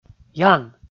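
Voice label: "ян", Komi, male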